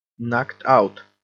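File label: "knocked out", English; vycucnutý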